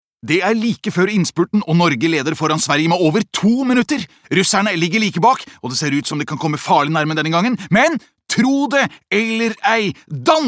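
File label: Norwegian dub commentator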